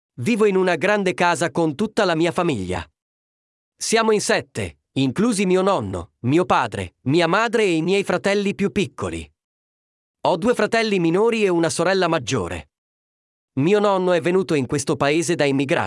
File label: Audiobook Voice